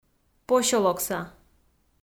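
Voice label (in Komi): "посёлокса" Komi, female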